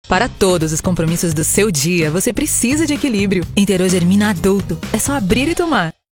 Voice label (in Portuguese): Locucao